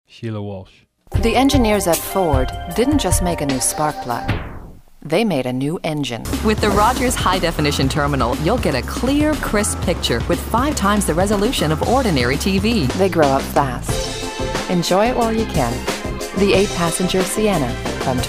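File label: Commercial, Voice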